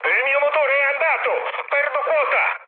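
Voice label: Italian damaged engine mood high, speech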